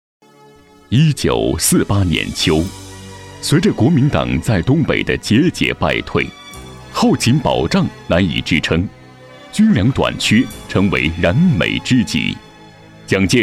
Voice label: 旁白解说词

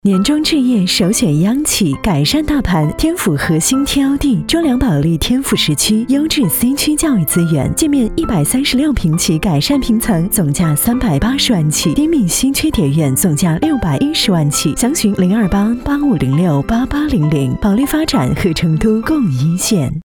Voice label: 电台广告知性温柔质感房产; 品质保利房地产

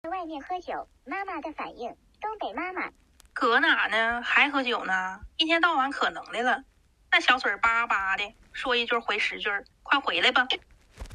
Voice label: 东北话

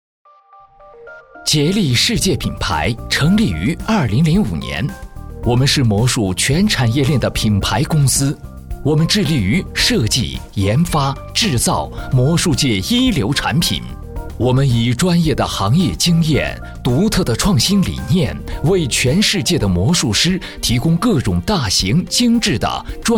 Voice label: 解说魔术品牌; 竭力世界

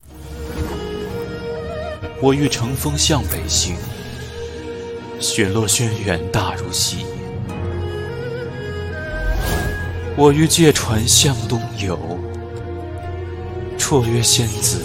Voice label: 动漫配音

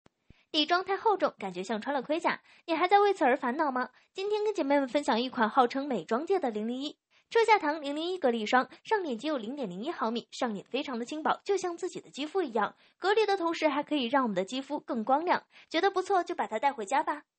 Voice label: 女声抖音广告

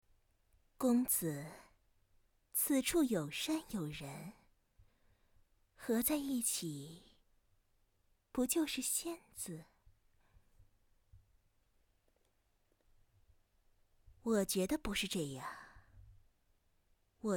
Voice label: 御姐魅惑

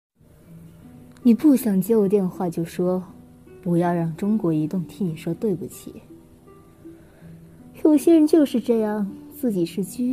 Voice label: 多御姐音

